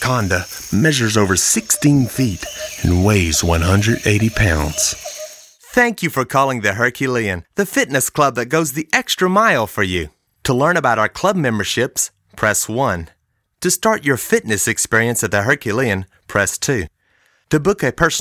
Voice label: Voice Actor Narration